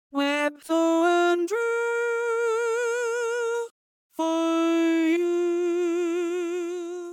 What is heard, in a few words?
vocaloid